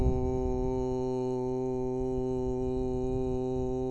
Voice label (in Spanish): u real, LPC